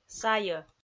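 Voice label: "сайӧ", Komi, female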